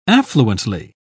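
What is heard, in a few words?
"affluently", English, uk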